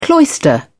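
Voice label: "cloister", British English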